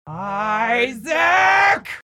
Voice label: Mom Vox; Isaac